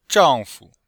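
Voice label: "zhang4fu5", Chinese; Cours de Chinois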